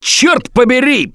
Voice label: reaction negative, russian; Gambler

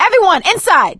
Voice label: stay together inside, survivor voice; Left 4 Dead 2; producer

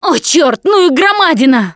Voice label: warn tank, Russian; Left 4 Dead 2; Producer; Survivor Voice

